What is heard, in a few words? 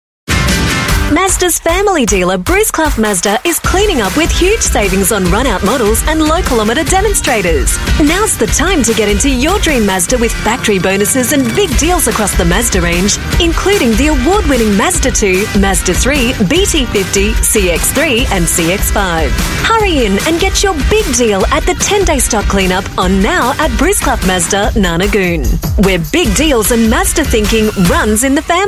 AU MidAtlantic English Radio Ad, Australian English Female; Mazda Australia